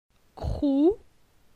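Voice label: "Crou", French; phonetique; la syllabe ou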